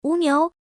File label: female